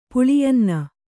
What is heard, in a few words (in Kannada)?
"ಪುಳಿಯನ್ನ", Kannada